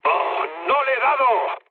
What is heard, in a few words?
bomb failure mood med; speech; War Thunder